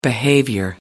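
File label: "behavior", en, uk British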